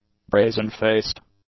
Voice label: "brazen-faced", English; adjectives